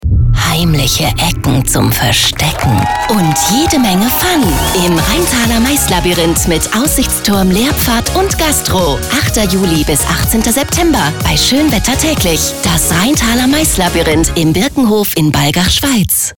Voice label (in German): SPOT; Rheintaler Mais Labyrinth; LOFT VOICES